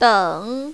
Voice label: "deng3", mandarin, female; 等